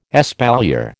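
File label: "espalier", American English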